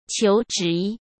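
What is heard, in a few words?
"求职", Mandarin Chinese; hsk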